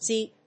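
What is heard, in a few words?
"ZEEP", English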